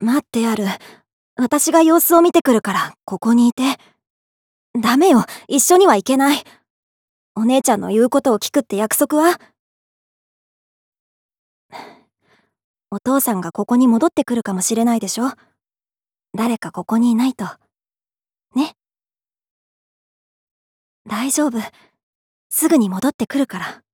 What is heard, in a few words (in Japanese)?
女性A